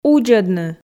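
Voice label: "удзӧдны", Komi, female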